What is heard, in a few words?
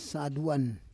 "saduan", Roon